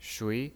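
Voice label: "shui", Mandarin Chinese pinyin, second tone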